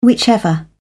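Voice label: "whichever", British English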